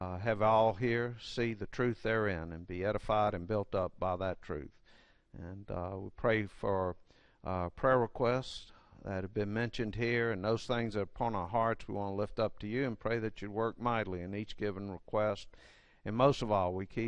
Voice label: English